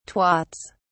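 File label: "twats", English, British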